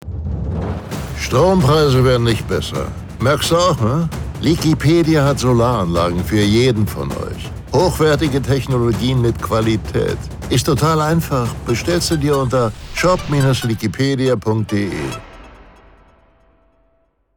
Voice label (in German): Radiospot